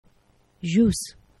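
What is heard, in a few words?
"ĵus", Esperanto; geneva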